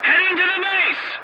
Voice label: voice message return to base, english

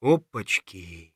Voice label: scav mutter, voice; Escape from Tarkov; scav3

